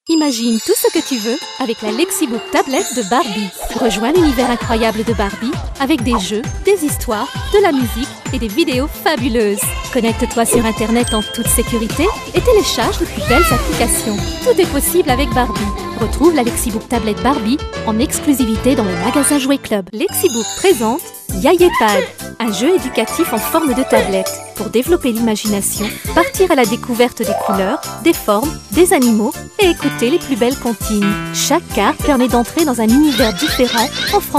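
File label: French female TV commercial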